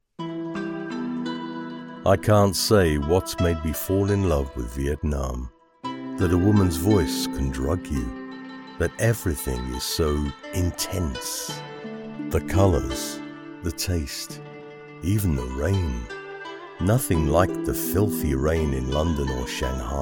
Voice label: English British audiobooks